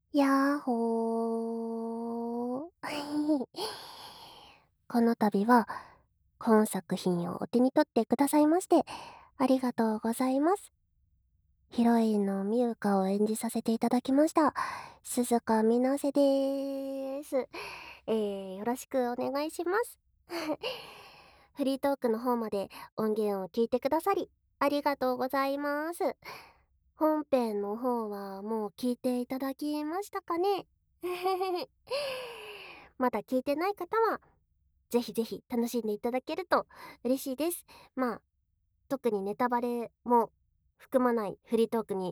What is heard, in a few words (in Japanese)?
フリートーク; 【クール淡々搾精】太い実家のお嬢様に「買われ」、「飼われる」～性処理研究対象として"イチャあま"淡々ダウナー搾精～【事務的オナサポ】